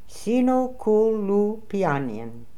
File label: "Sinokooloopianian", pronounciations; Fourth Way; Beelzebub